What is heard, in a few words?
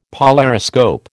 "polariscope", American